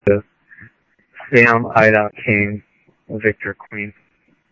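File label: Police Department, scanner audio; SCTSDLE PD - PD2; H-Scottsdale; ScottsdalePolice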